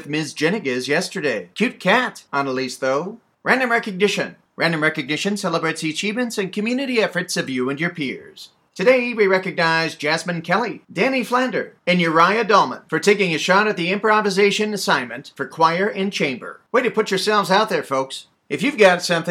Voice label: Announcements; Thursday April